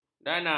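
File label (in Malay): "danau", Malay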